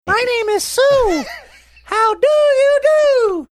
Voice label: saying my name is sue how do you do funny high pitched